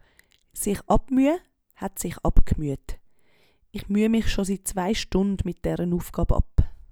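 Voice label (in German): sich abmüe, Swiss German; Wortschatz; Hauptwortschatz; Wortschatz Kapitel 8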